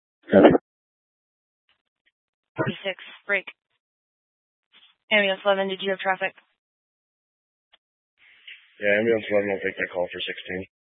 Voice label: Fire Dispatch